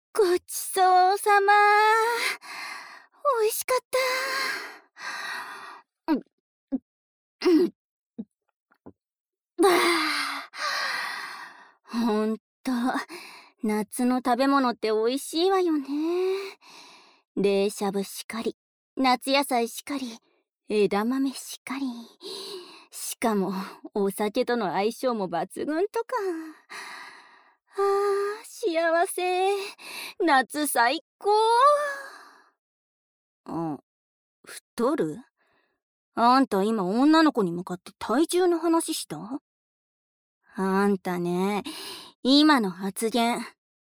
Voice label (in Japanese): 猫かぶりカノジョと好き好き連呼ささやき手コキ（効果音なし）